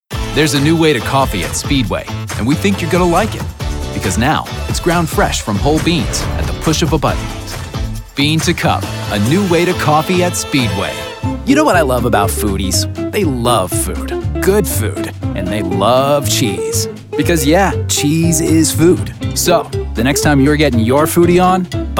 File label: Commercial